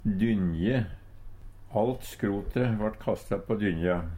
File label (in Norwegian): "dynje", Numedalsmål; Alt skrote vart kasta på dyngja; Substantiv hokjønn